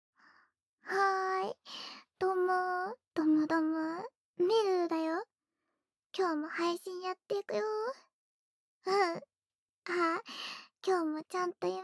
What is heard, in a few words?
ASMR; 刺激乳頭＆素股的挑逗直播……♪